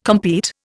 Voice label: "compete", Indian